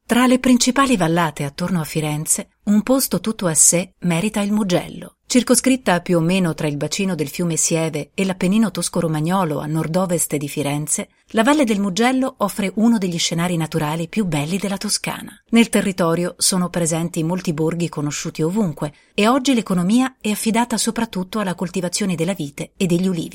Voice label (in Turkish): Italyanca Kadin; seslendirme